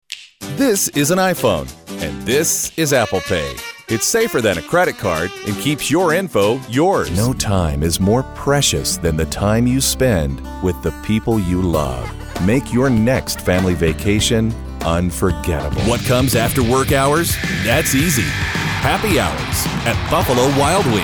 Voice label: Commercial